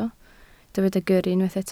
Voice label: "gyrru", Welsh

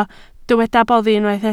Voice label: "boddi", Welsh

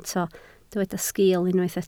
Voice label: "sgiil", Welsh

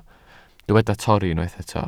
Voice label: "torri", Welsh

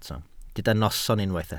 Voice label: "noson", Welsh

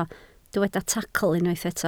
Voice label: "tacl", Welsh

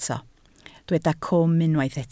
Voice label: "cwm", Welsh

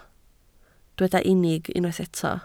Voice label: "unig", Welsh

